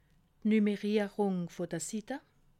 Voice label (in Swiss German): "nummerirung", alsace